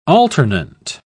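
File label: "alternant", British English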